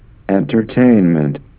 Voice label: mic; vibraphone; entertainment